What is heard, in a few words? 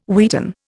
"wheaten", Indian